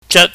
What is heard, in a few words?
"jat", chinese